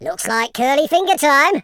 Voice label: "kamikaze", speech

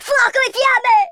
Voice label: grenade, Italian

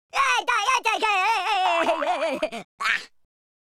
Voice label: taunt1, speech, Italian

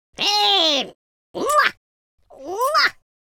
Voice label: happy, Scotsman; speech

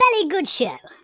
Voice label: "brilliant", speech; Biggles

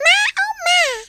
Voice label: just you wait, Southern Belle; speech

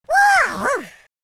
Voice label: relief, speech Italian

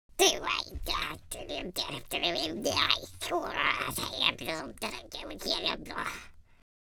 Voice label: angry, speech Patronising

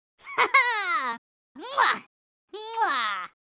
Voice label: happy, speech; Biggles

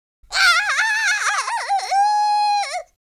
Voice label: scared, Cowgirl; speech

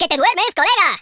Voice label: come on then, Spanish

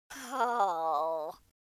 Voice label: sad

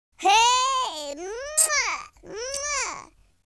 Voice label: happy, Gangsta; speech

